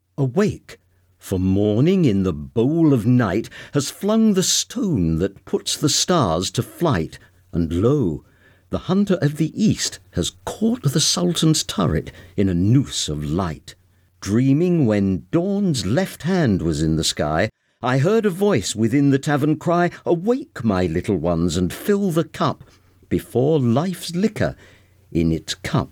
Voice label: Poetry